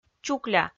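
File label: "чукля", Komi-Zyrian, female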